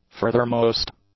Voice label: "furthermost", English; adjectives